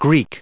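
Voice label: "Greek", US English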